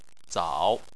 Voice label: "zao3", Chinese